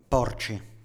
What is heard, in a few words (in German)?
"porci" (offen), Italienisch; ɔ O porci (Schweine); Standard-Italienisch